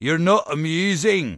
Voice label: Dwarf Male Standard NPC Pissed